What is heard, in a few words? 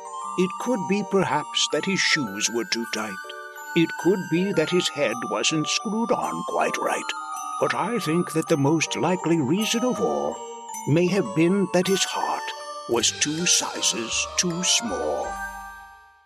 Christmas Storyteller